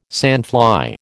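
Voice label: "sandfly", American English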